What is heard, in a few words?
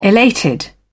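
"elated", British English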